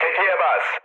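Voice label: attack position, mood med, Turkish; War Thunder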